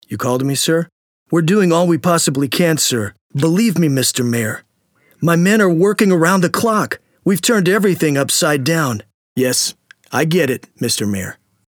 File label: movie, US